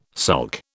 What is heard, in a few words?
"sulk", British